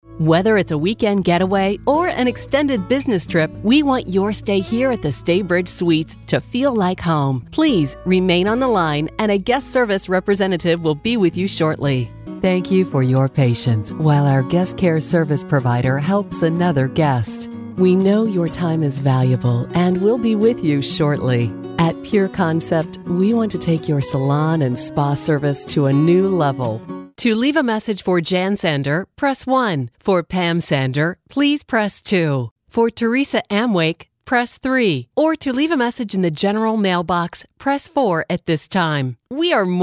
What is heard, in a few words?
phone